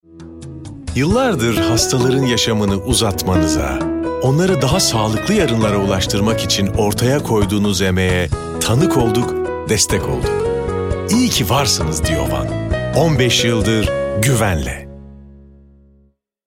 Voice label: duygusal motive; seslendirme

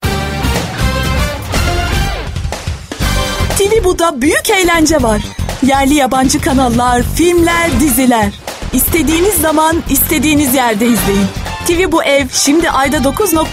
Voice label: kampanya tempolu; seslendirme

